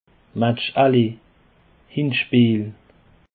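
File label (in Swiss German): "match aller", Alsatian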